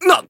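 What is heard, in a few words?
damaged, Japanese; claude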